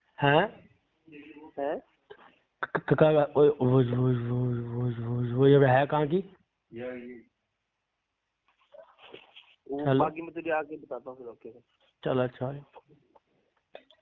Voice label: Call Recordings